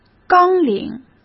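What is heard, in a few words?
"纲领", Mandarin Chinese; chinese-flashcards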